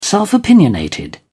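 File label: "self-opinionated", British English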